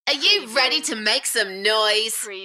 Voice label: UK female Enthusiastic

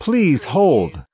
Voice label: US male please-hold; lynne publishing

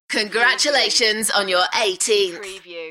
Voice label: UK female

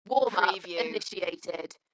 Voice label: UK female, Warm up initiated, Dalekness; beanstalk audio

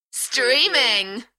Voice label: UK female, "Streaming", Enthusiastic; beanstalk audio

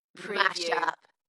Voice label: UK female, Dalekness; Mash up; beanstalk audio

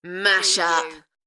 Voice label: UK female; Mash up; beanstalk audio

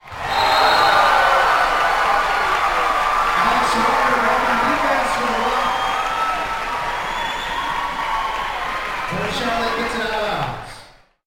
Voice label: Basketball Announcer Speaking with Crowd Cheering; Basketball sound effects; Crowd and stadium ambience, announcers, cheering

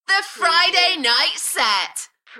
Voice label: UK female, Enthusiastic; beanstalk-audio

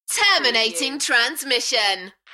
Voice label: UK female, Terminating transmission, Enthusiastic; beanstalk audio

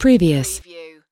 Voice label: US female previous; lynne publishing

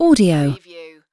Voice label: "audio", UK female; lynne publishing

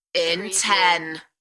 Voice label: UK female; In ten

